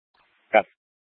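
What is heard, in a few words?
Police Dispatch, scanner audio recording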